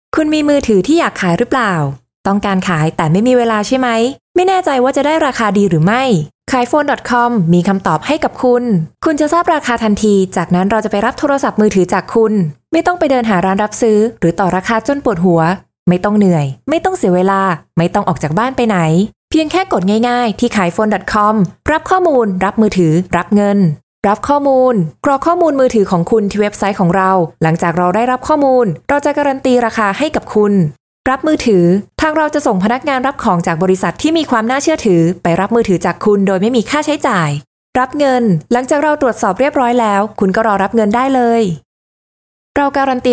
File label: Thai Female Narration Marketing